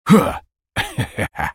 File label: ultimate effort, en US; Twisted Fate